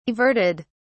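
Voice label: "averted", British English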